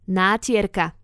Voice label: "natierka", slovencina

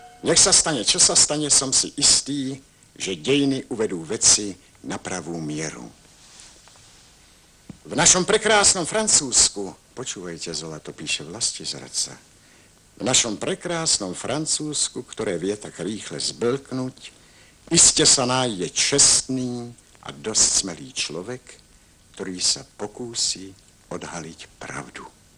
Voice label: dialog

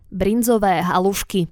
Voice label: "bryndzove halusky", slovencina

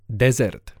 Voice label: "dezert", slovencina

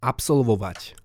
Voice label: "absolvovat", slovencina

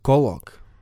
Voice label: "kolok", slovencina

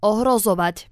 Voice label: "ohrozovat", slovencina

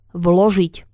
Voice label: "vlozit", slovencina

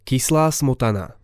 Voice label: "kysla smotana", slovencina

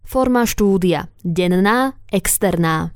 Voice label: "forma studia", slovencina

